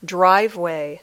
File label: "driveway", English